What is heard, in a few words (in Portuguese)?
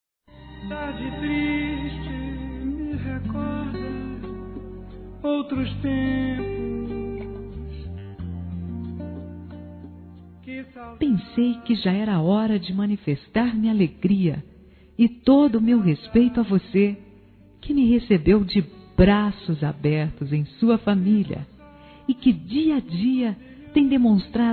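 MENSAGEM P-SOGRO-Feminino; Telemensagens; Telemensagens 2017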